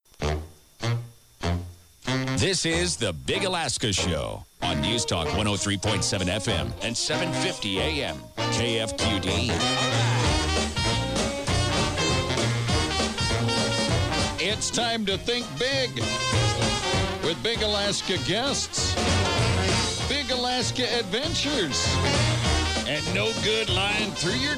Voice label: Radio Banter